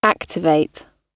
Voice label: activate, gb; tieng anh 12